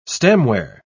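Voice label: "stemware", British English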